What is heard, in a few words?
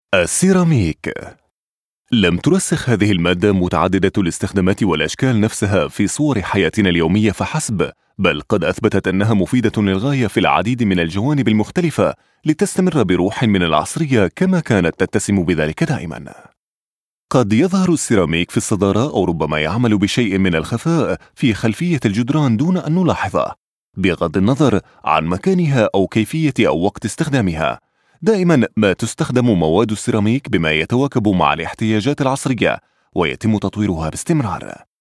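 Arabic voiceover; sprecher; arabisch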